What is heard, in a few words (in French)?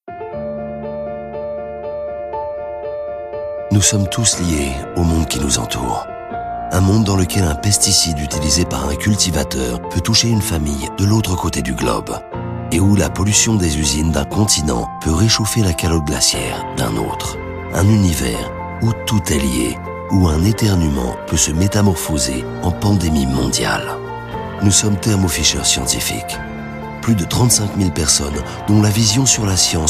film institutionnel; Thermo Fisher Scientific